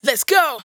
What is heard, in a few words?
"Let's go" adlib, dry vocals; Raw Vocals; Adlibs